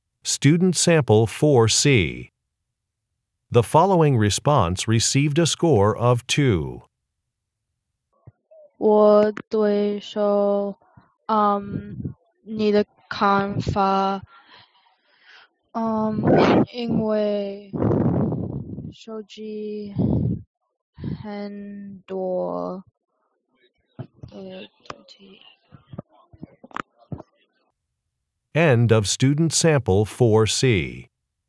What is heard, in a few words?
chinese conversation